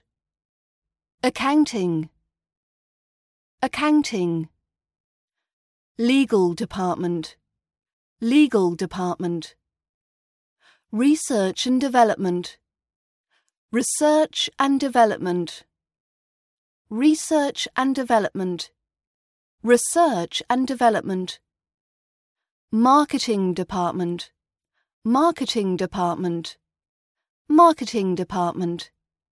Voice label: company departments RP British accent training

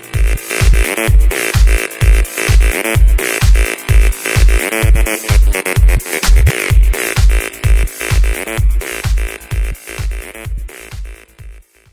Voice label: German radio

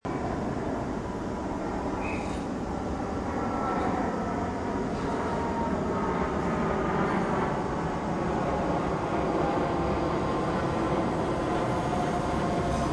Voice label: planes trains smoking announcements

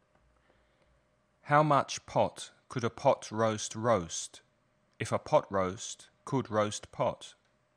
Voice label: tongue twisters trabalenguas read listen repeat; how much pot could a pot roast roast if a pot

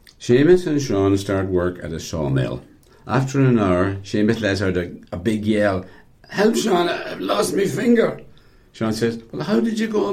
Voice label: irish joke

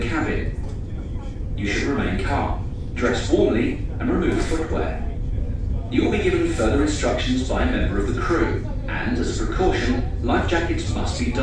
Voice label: announcement

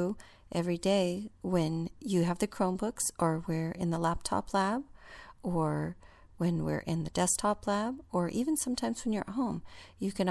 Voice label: welcome message directions

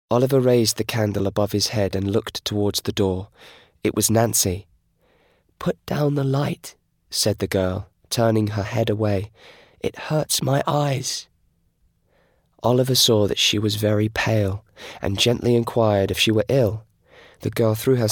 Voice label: narrative; oliver twist

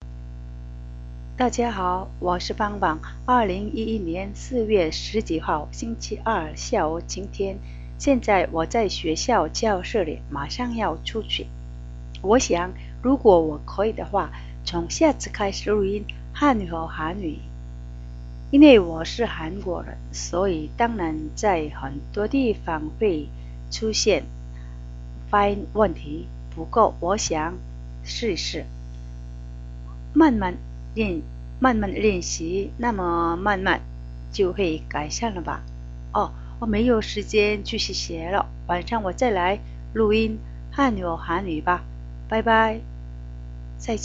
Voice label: chinese korean audio chinese audio

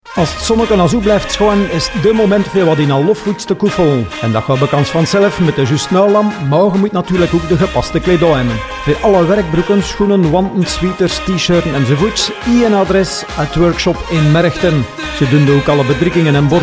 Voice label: reklam; workshop

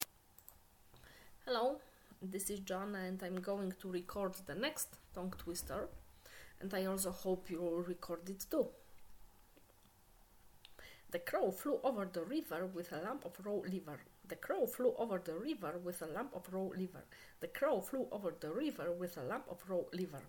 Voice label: tongue twister the crow

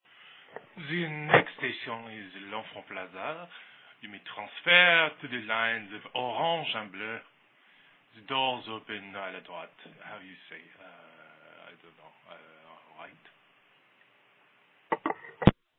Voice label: metro announcement wait is that french

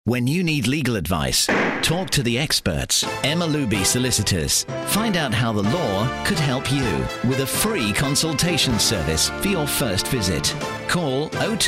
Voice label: commercial

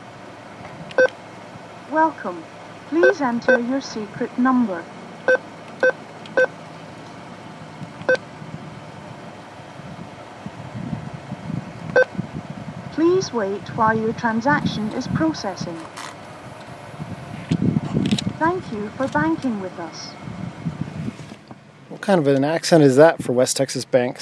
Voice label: weird West Texas ATM accent

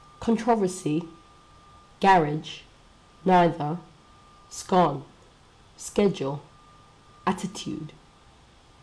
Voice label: hong kong female

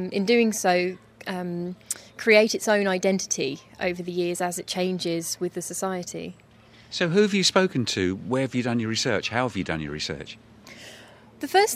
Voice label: Essex breakfast dialect

